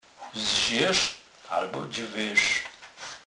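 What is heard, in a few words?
"zzerz", Polish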